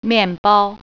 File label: "bread", Mandarin